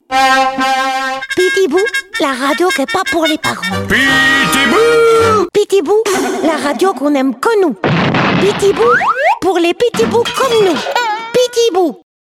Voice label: french animation